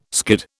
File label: "skid", British